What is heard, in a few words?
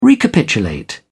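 "recapitulate", British English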